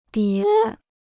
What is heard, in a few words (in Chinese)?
"叠", Chinese